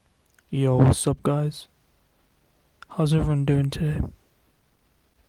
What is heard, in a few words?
Voice message; ddnet